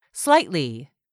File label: "SLIGHTLY", english; 3000 words